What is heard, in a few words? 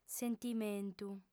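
"sentimentu", Sardinian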